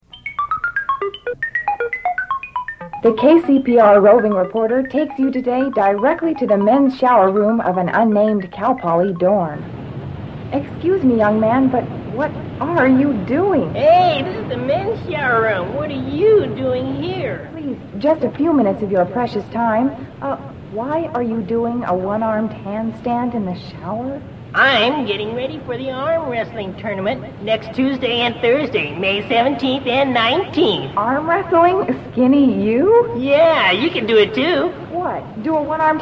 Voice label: promo